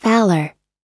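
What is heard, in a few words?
"valour", American English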